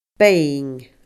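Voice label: "being", Scottish Gaelic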